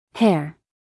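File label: "hair", American English, female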